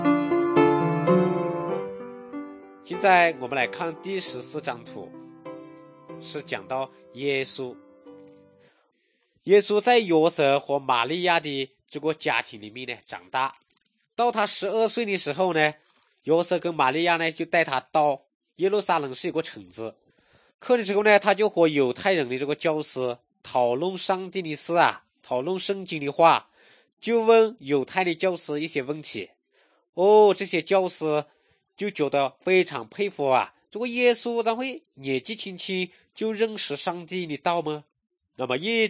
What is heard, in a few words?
good news shan, drama